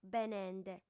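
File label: "benende", Sardinian, female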